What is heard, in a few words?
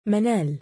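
female name "manaal", Arabic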